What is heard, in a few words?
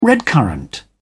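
"redcurrant", English, British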